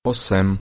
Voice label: "8", Slovak